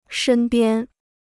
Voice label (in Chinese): "身边", Chinese